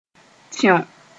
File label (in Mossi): "tẽo", Moore